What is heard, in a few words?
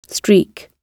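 "streak", British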